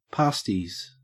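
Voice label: "pasties", Australian English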